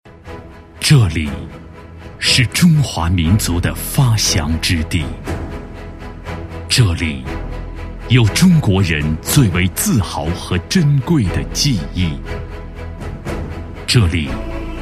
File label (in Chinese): 男40 专题, 国语男声配音